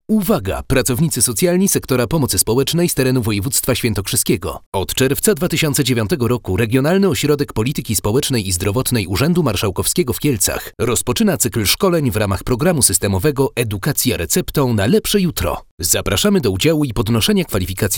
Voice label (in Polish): Reklama